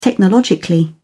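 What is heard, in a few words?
"technologically", British English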